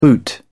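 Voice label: "the boot", British English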